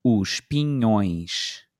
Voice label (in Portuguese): "os pinhões", Portuguese